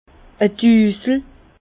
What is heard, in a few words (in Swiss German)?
"dusel", Bas Rhin; vin; Ville Bas-Rhin Strasbourg